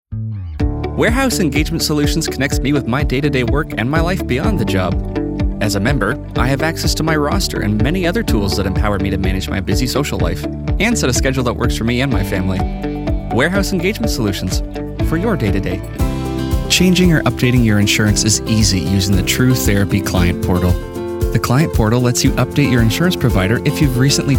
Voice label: Commercial Styles